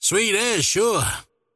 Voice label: last hit pain; dota; largo

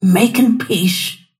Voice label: attack pain; snapfire

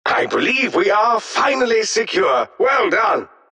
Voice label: announcer victory; dlc defensegrid

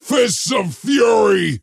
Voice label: attack pain; axe

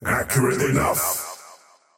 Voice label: last hit pain, faceless void; dota

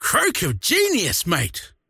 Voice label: croak big; dota; largo

